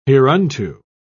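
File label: "hereunto", British English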